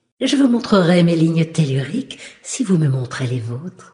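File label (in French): Female Flirt, voix françaises; Sacrenuit; Ligne Tellurique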